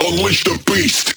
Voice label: Vocal Phrase Male "Beast"; bass house

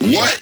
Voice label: Vocal Phrase Male "What"; bass house; fx vocals

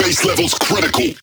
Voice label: Vocal Phrase Male "Critical"; Critical Main

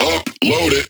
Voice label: Vocal Phrase Male "Upload"; bass house

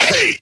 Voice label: Vocal Adlib Male "Hey"; bass house

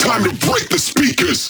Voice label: Vocal Phrase Male "Speakers"

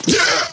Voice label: Vocal Adlib Male "Yeah" Cracking; bass house

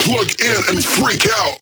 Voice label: Vocal Phrase Male "Plug Freak"

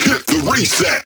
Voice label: Vocal Phrase Male "Reset"

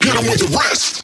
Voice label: Vocal Phrase Male "Rest" Fast; bass house; Dropgun Samples

